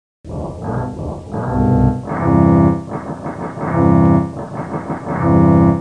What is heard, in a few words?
blabber; Transcription: / The End